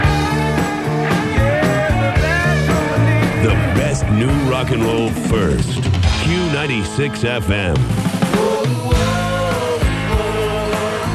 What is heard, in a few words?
Sweeper; WHTQ